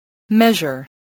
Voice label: "measure", spelling voice